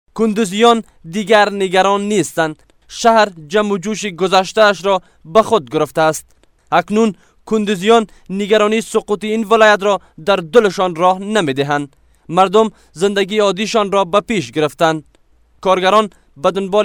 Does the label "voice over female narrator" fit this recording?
No